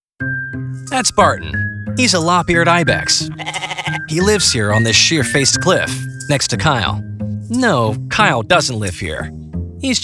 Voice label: Explainer